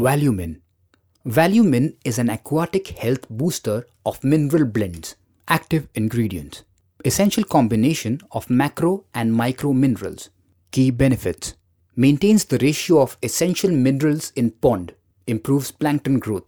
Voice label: VOICE OVER